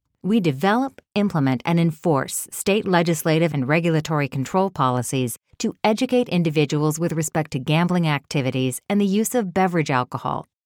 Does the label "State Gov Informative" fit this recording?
Yes